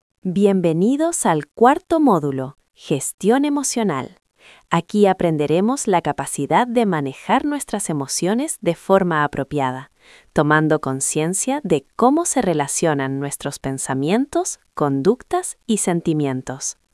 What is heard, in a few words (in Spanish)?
locucion